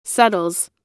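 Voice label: "settles", US English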